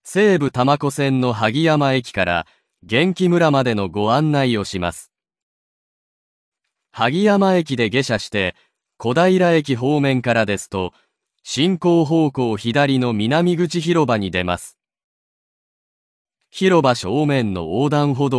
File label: voice guidance